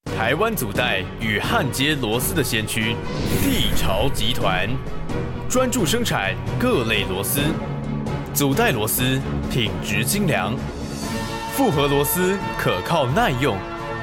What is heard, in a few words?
Commercial